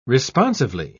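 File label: "responsively", British English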